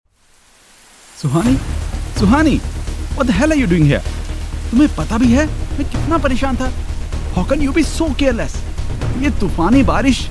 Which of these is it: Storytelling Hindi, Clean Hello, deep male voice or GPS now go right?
Storytelling Hindi